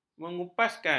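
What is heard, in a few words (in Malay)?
"mengupaskan", Malay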